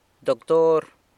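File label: "doctor", San Lucas Quiaviní Zapotec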